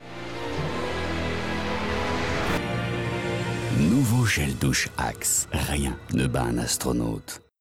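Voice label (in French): PUB Axe, voix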